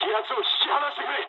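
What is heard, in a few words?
aircraft damaged mood high, speech japanese; War Thunder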